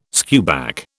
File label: "skewback", British